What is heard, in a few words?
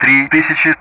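Speech Sound Russian; DCS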